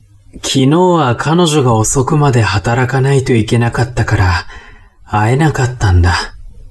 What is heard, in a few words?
man